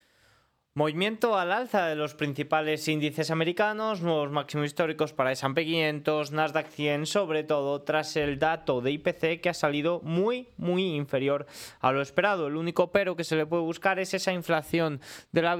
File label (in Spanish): radio; noticias bolsa